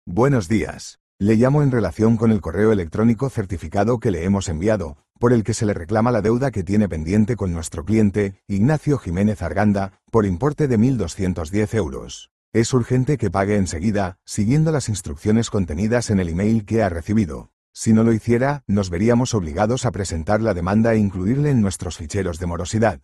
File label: audio call